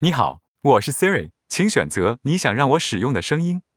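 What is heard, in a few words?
Chinese, China; iOS 26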